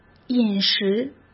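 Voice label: "饮食", Mandarin Chinese; chinese-flashcards; hsk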